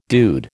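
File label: "dude", American English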